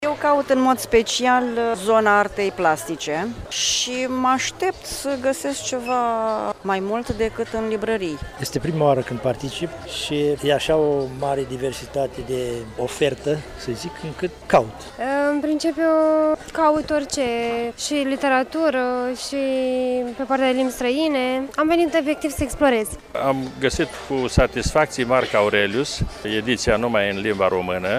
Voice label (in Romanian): vox pop; targ